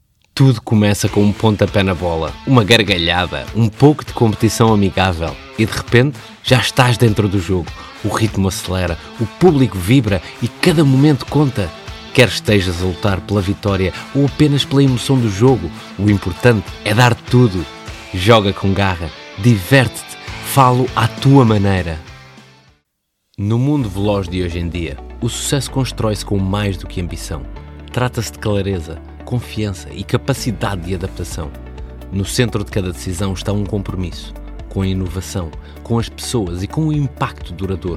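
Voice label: Portuguese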